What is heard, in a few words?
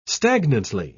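"stagnantly", British English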